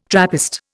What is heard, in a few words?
"Trappist", Indian